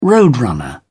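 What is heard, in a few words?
"roadrunner", British English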